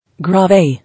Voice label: "Grave", English